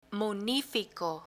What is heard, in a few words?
"munifico" Spanish